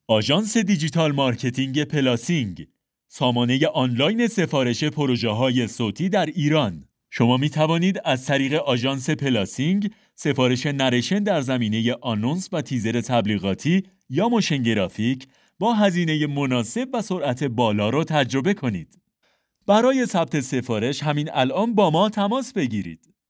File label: narrator